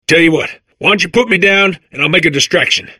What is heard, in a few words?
babble; portal2